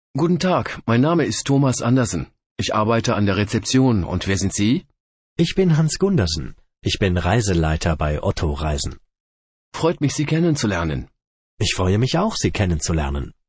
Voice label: dialog